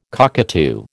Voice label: "cockatoo", American

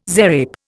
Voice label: "zarape", Indian

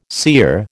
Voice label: "sear", American

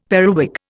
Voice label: "periwig", Indian; Dictionary

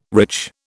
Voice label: "rich", British

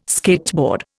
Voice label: "skateboard", Indian

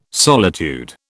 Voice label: "solitude", British

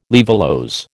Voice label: "laevulose", American

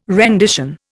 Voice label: "rendition", Indian

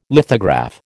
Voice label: "lithograph", American

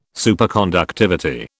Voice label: "Superconductivity", British

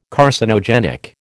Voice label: "Carcinogenic", American

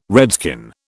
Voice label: "redskin", British

